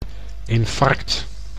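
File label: "infarct", Dutch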